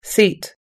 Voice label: "seat", US English